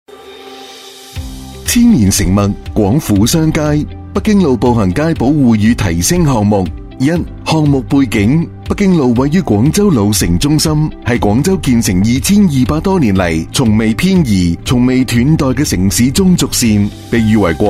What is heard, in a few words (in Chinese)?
男 粤语配音 项目介绍 大气磁性; 北京路步行街; 大气有力